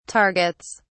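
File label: "targets", British English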